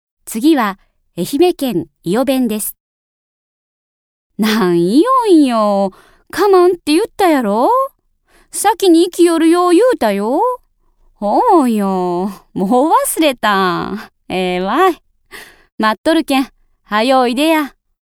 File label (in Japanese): 方言